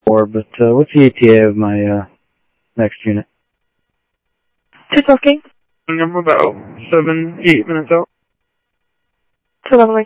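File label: police department, scanner audio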